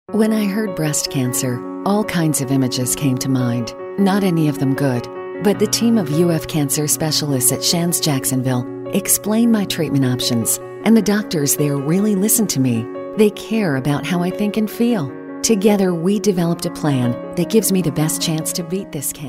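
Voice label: concerned caring, voice-over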